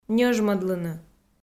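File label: "ньӧжмӧдлыны", Komi, female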